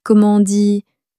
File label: "comment on dit", french; flashcards